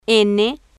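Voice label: "n", spanish, female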